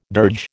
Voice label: "dirge", American